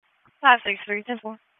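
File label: Sheriff's Office Dispatch; WSO DISP 3; wake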